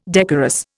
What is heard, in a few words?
"decorous", Indian